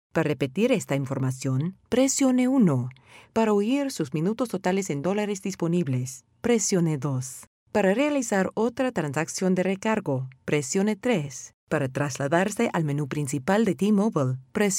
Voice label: spanish